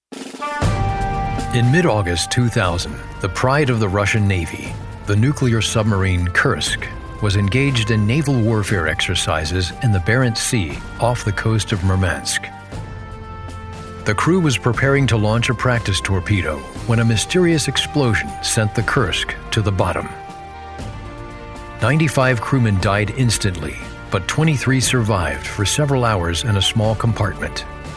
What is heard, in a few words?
Documentary